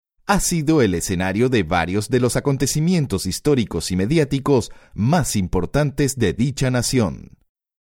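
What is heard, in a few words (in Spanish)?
e-learning, Venezuela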